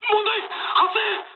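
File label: aircraft damaged mood med, speech japanese; War Thunder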